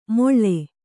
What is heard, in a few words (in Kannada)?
"ಮೊಳ್ಳೆ", Kannada